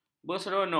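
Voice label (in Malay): "berseronok", Malay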